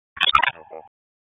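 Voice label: Police Dispatch, p25; Wake Forest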